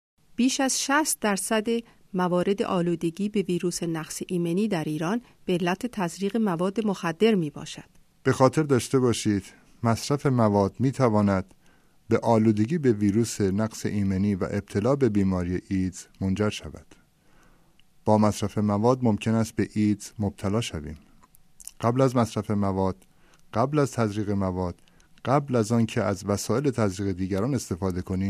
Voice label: spot farsi